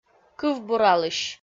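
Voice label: "кывбуралысь" Komi, female; kpv audio dict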